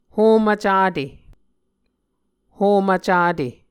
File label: "homv cate", Muscogee